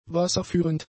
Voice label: "wasserführend", German; adjectives